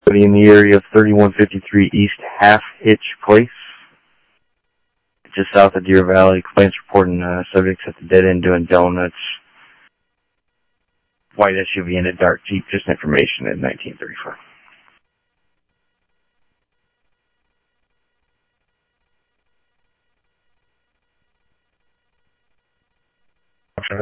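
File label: Phoenix police department, scanner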